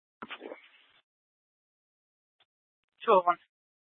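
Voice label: Scottsdale Police South Dispatch, scanner; Regional Wrlss Cooperative (RWC)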